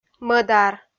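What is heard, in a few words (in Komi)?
"мӧдар", Komi, female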